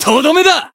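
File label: Voice finish, Japanese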